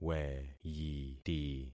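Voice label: "weyiti", concatenated syllables; Affixing experiment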